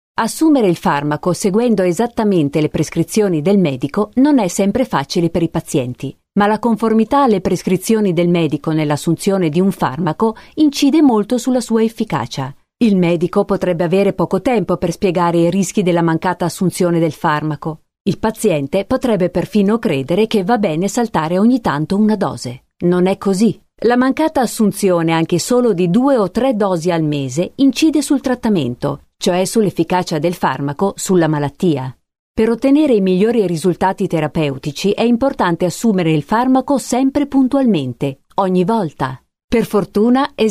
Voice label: italian female medical

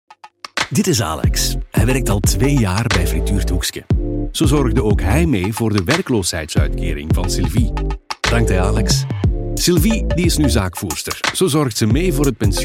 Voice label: flemish voice conversational explainer

